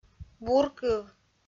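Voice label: "буркыв", Komi, female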